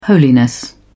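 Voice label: "holiness", British English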